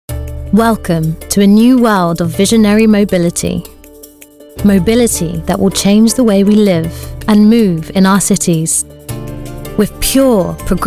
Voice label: UK Music; BMW